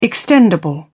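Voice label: "extendable", British English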